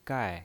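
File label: "gai" Mandarin Chinese, fourth tone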